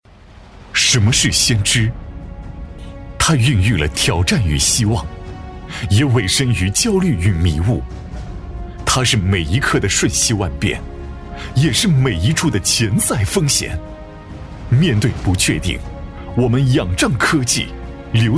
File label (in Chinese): 男 宣传片 质感激情; 厚重有力; 大气浑厚; 海湾